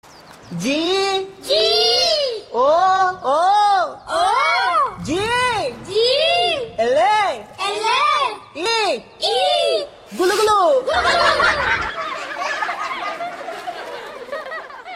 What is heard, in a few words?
Funny Dialogue; Gulu Gulu